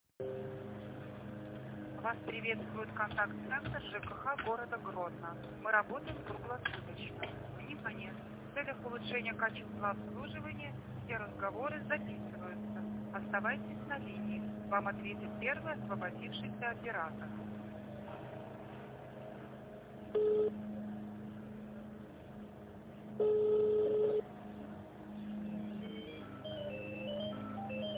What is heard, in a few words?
phone